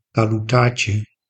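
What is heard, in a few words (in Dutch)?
"kalutaatje", Dutch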